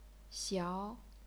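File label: "xiao", chinese pinyin, second tone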